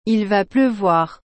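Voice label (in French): "Il va pleuvoir", French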